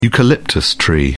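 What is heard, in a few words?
"eucalyptus", British English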